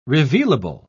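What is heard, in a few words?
"revealable", British English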